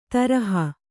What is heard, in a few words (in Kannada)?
"ದ್ರೋಹಿ", Kannada